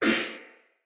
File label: Pain, Soldier Male; Sinecure Soldier Male A